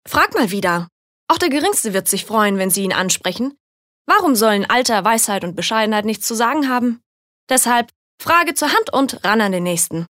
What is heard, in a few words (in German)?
Werbung Frech